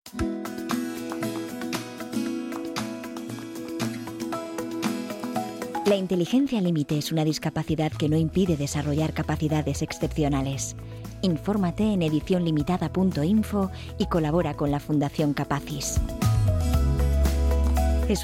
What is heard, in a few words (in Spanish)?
Cuña Radio; manchat